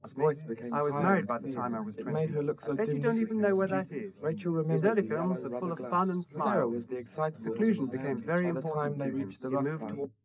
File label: male4; SiSEC10; underdetermined